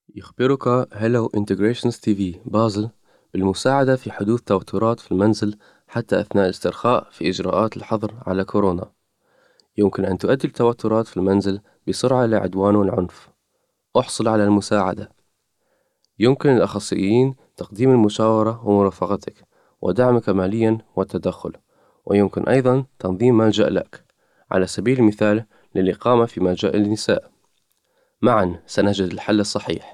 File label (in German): Arabisch Vox; MHM Corona Häusliche Gewalt